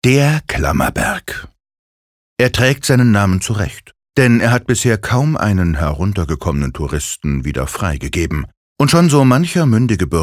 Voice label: Kommentar Neutral, voices

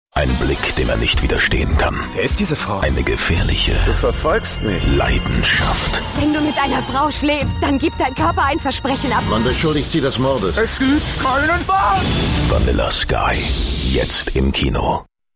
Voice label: Werbung Cool

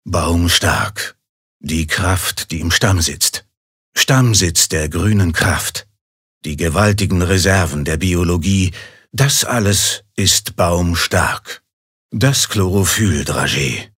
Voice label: Werbung Sanft

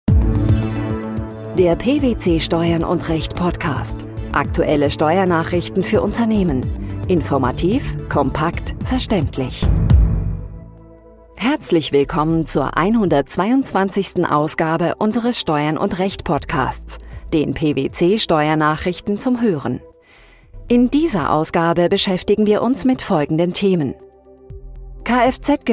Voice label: Neutral

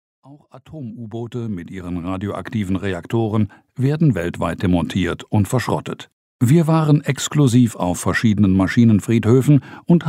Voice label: Kommentar Neutral

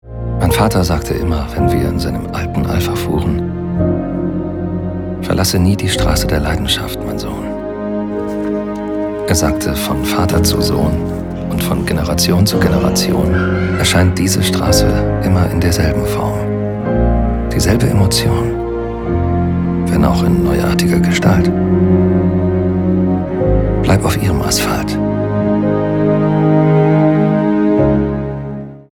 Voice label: Sanft; Fremdtake

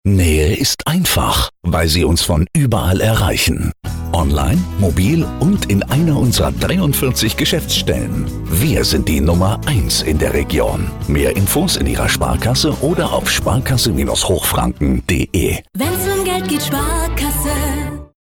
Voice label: W Fremdtake Freundlich

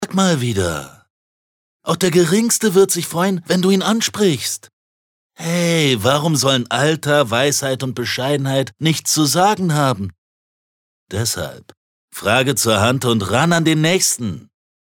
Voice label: Werbung Cool

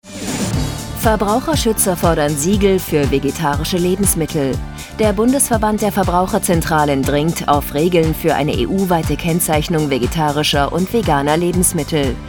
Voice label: Kommentar Freundlich

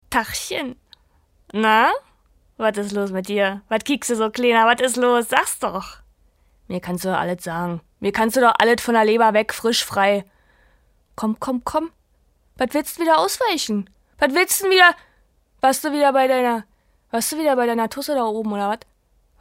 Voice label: Dialekt Berlinerisch

